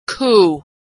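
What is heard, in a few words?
"coup", American English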